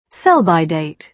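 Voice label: "sell-by date", British English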